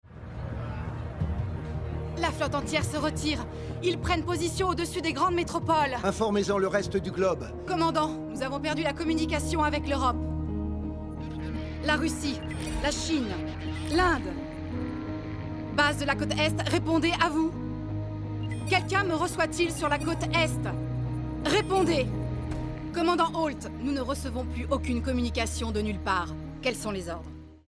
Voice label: Veronica inquiete urgence nerveuse

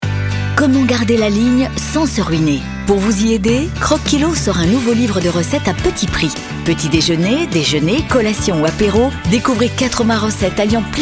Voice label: SPOT; CROC KILOS

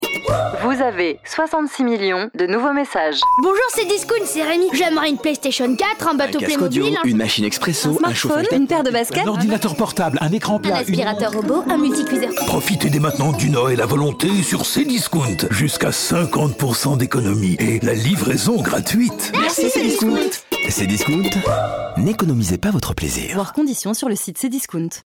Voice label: Radio; Cdiscount

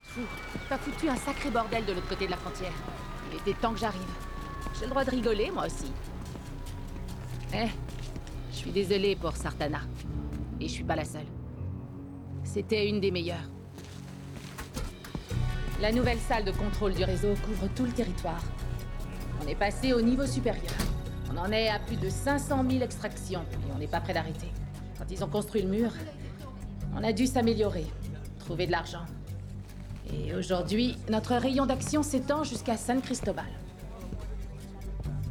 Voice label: doublage; Michèle Rodriguez; Machete Kills

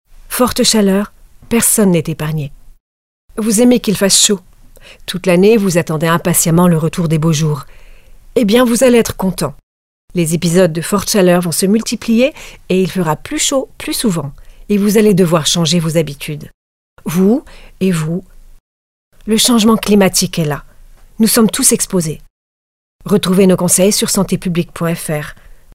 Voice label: impro; sante publique

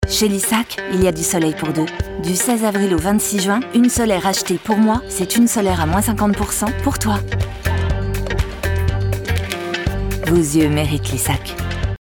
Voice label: pub fraiche dynamique; LISSAC Toi et Moi

